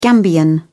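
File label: "gambian", English, British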